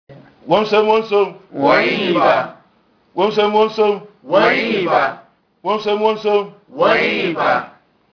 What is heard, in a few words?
VOICE GREETINGS